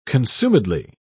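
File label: "consumedly", British English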